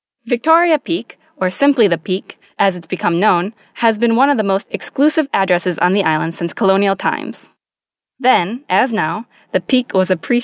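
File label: US female corporate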